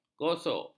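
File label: "gosok", Malay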